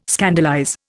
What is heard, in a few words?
"scandalize", Indian